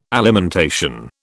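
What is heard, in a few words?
"alimentation", British English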